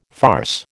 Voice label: "farce", American

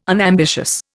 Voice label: "unambitious", Indian

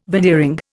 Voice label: "veneering", Indian

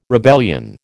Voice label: "rebellion", American

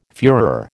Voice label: "fuehrer", American

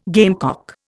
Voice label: "gamecock", Indian

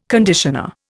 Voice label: "conditioner", Indian

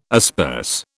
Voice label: "asperse", British

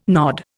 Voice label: "nod", Indian

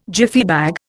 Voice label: "Jiffy bag", Indian

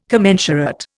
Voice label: "commensurate", Indian

